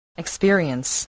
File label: "experience", voice